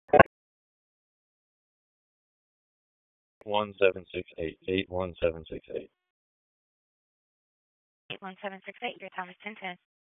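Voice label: Police Southeast District Dispatch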